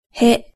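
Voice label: "he", Japanese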